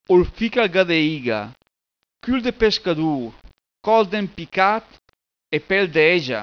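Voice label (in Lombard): Ol fic al gà de iga, cül de pescadur, col d'empicat e pel de ègia., bergamasco; PROVERBI BERGAMASCHI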